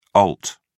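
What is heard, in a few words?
"alt", British English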